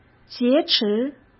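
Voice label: "劫持", Mandarin Chinese; hsk; chinese-flashcards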